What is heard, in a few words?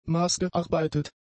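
"maßgearbeitet", German; adjectives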